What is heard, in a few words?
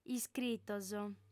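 "iscritos", Sardinian, female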